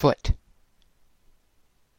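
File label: "foot" English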